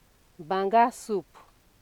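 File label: "banga soup", Nigerian Pidgin, female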